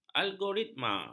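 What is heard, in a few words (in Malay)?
"algoritma", Malay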